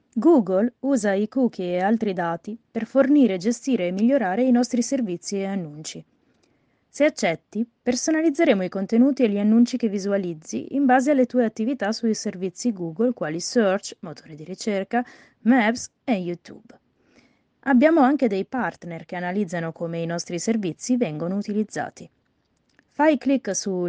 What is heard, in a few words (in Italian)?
suono della voce interiore